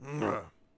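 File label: Settler Male Fort Vox; Civ4 warlords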